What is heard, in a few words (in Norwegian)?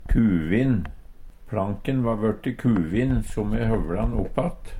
"kuvin", Numedalsmål; Adjektiv